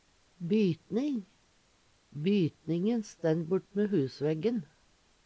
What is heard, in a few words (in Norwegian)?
"bytning", Numedalsmål; Hushald, mat, drikke